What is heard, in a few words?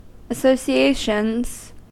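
"associations" English, US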